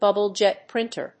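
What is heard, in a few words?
"bubble-jet printer", English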